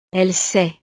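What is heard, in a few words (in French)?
"elle sait", French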